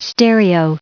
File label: "stereo", en-us